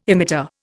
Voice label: "emitter", Indian